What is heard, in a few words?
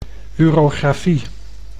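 "urografie", Dutch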